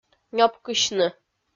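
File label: "нёпкысьны", Komi, female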